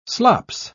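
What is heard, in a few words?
"slops", British English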